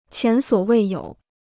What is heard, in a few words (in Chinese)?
"前所未有", Chinese